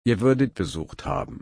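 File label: "besuchen", German, slow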